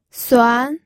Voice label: "suan2", pinyin